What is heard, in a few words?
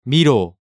"miro", Korean